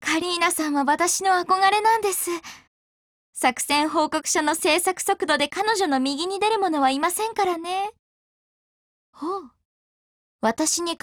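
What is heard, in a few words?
DIALOGUE, Japanese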